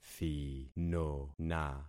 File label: "thinona", concat syllables; Affixing experiment